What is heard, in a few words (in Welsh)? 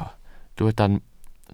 "mwyn", Welsh